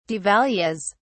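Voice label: "devalues", British English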